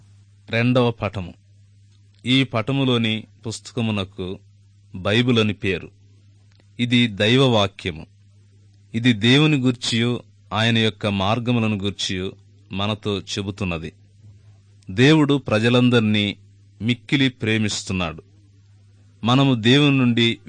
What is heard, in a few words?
good news telugu